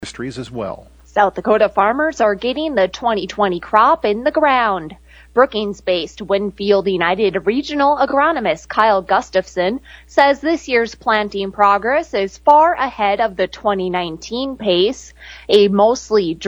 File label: air check; WinField United